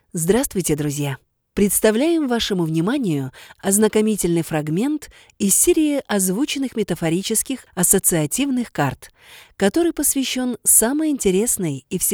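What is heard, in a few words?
presentation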